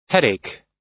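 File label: "headache", US English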